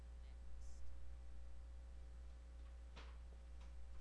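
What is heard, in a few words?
"pump", spoken word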